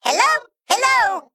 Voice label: Male Mech Error Minion Attacked; Annoy O